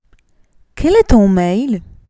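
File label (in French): "Quel est ton mail", French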